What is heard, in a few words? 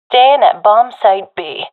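guarding bombsite b, professional female; vo; Counter Strike Model Pack; CS:GO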